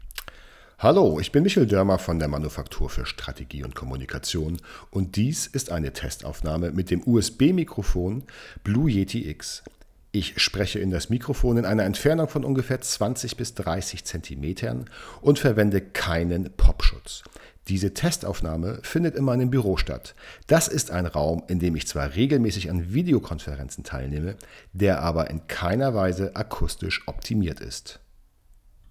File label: Test Blue Yeti X